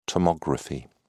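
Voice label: "tomography", British English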